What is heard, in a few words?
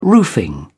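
"roofing", English, British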